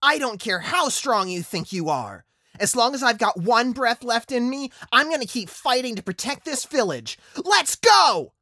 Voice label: character Anime Protagonist

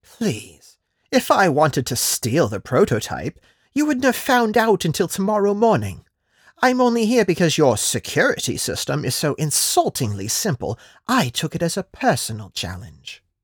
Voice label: character Smug Rogue

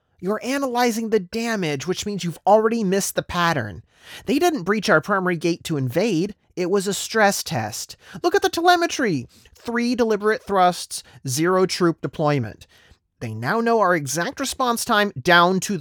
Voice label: character Hyper focused Specialist

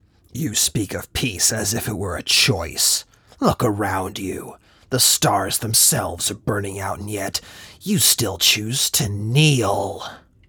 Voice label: character Gravelly Threat

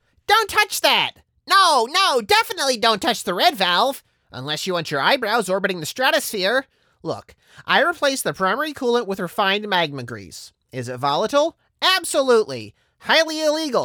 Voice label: Character Goblin Sidekick

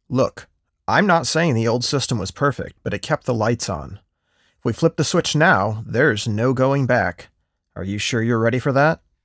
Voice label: character Conversational

